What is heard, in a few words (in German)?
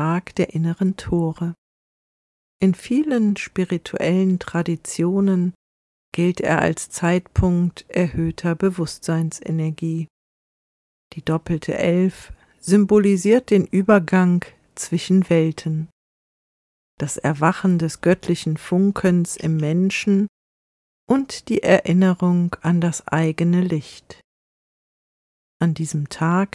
meditation einleitung